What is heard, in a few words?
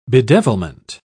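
"bedevilment", English, British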